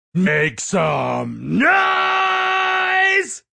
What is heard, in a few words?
Make Some Noise, Male; Radio